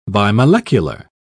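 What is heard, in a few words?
"bimolecular", English, uk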